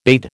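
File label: "bade", American English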